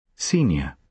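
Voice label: "senior", British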